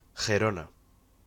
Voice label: Pronunciation of Girona in Spanish